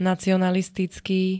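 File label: "nacionalistický", Slovak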